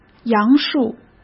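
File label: "杨树", Mandarin Chinese; hsk; chinese-flashcards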